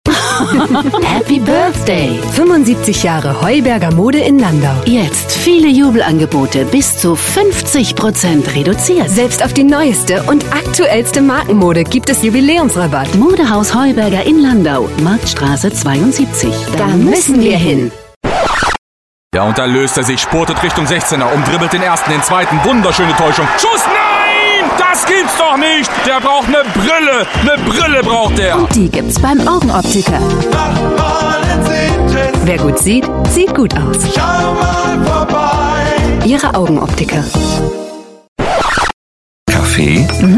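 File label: Commercial German Female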